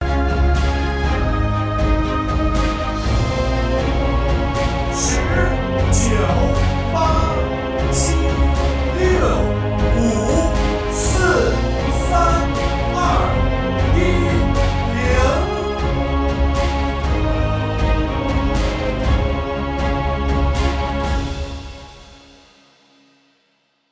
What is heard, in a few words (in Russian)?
vokalnij otschet muzhskoj golos 10 sekund atmosfera zahvativayushego molodezhnogo boya